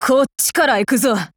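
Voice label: attack, Japanese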